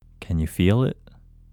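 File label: Male, India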